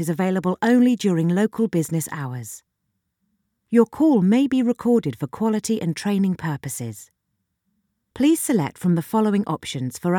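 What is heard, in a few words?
english british interactive voice response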